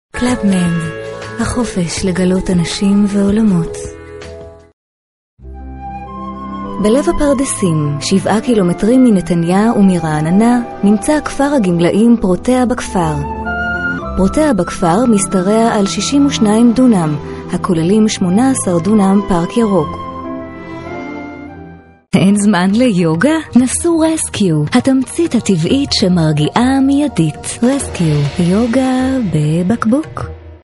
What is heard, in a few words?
female, Hebrew